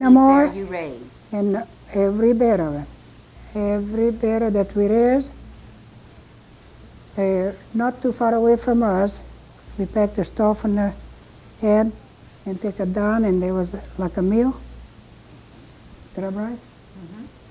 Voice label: Oral history with 82 year old female Fruita Colorado, american english dialect